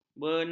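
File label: "benar", Malay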